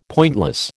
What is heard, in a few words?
"pointless", American